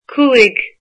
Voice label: five, irish

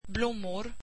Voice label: swedish flowers

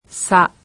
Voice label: japanese alphabet "sa"

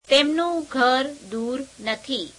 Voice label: gujarati, their house is not far; 3rd pl. possessive pronoun; તેમનું ઘર દૂર નથી; [teemeneum gher deūr netheī]

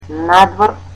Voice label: "outside", Macedonian

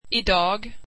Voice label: today, swedish

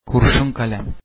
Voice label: pencil, turkish

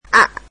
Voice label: vietnamese alphabet a

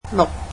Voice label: "six", Cantonese

